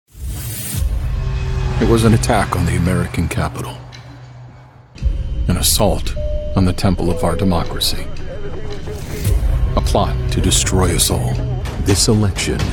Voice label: political dramatic men